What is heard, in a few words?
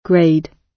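"grade", British English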